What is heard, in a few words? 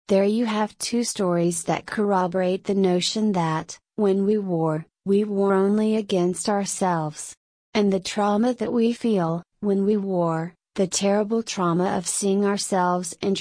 substitute voice rate slow pitch medium; alice; karen